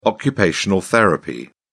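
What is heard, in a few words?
"occupational therapy", British English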